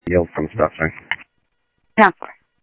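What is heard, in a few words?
Sheriff Lake Patrol, scanner audio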